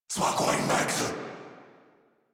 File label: Reload Corrupted Grineer Male